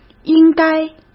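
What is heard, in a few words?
"应该", Mandarin Chinese; chinese flashcards; hsk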